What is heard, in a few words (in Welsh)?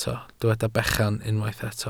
"bechan", Welsh